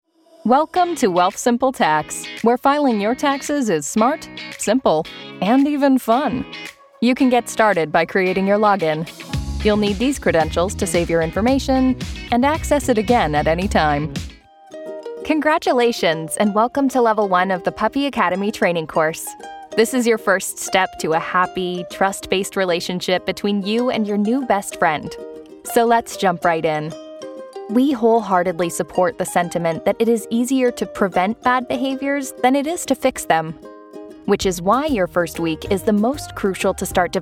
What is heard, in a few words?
English Canadian e-learning